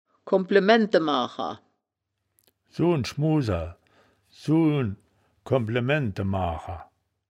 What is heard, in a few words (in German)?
"komble maennde maacher", wittlich